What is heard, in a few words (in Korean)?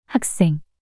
"학생" 단어 여자